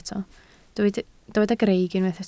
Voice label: "greic", Welsh